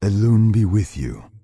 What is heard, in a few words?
Night Elf Male Standard Greeting